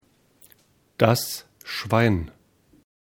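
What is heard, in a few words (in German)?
"Schwein", German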